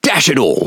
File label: Pirate Speech Quick Commands Caramba; World Of Warships